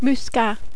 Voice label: "Muscat", French